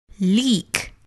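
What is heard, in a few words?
"leak", English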